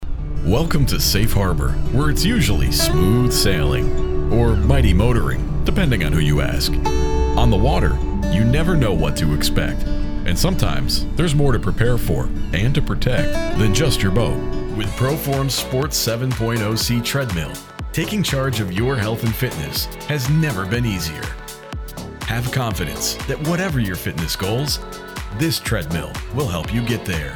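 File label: Explainer, Warm, approachable, dynamic voiceover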